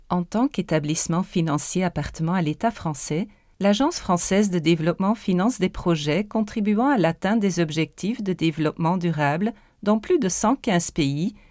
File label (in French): demo FR; Les Fabricants